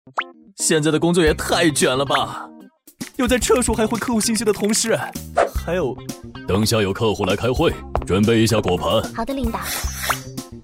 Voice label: 搞怪中二 社畜角色; 中国平安

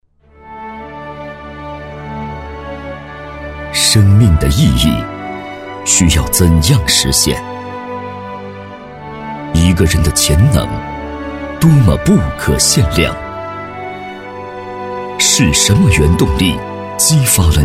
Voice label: 旁白 舒缓 旁白

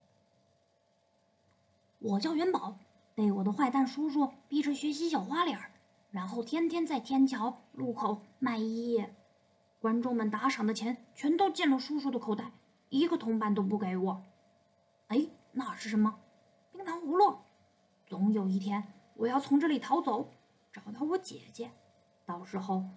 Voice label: 男童样音

